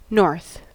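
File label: "north", US English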